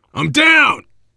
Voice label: incapacitated initial, voice, biker; Left 4 Dead 2; left4dead2 dlc3; survivor